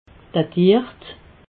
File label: "datiert", alsace